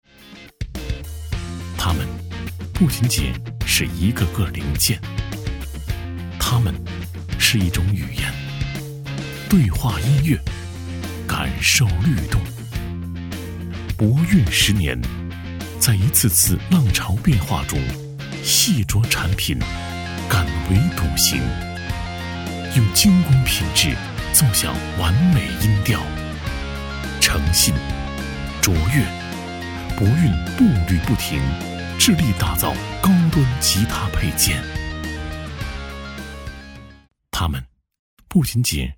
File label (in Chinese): 男 宣传片 企业; 博韵